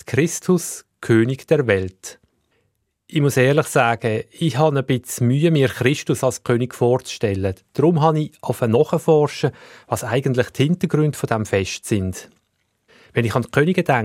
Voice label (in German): Predigt roem kath Mundart